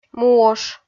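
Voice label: "муош", Komi, female; kpv audio dict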